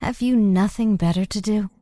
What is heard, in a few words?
Night Elf Female Standard Pissed